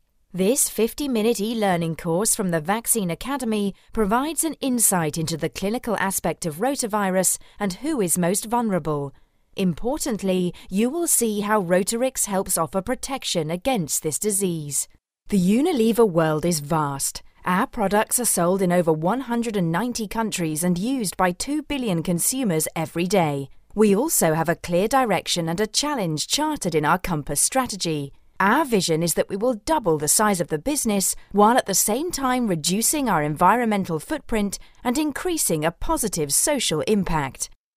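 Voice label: British narration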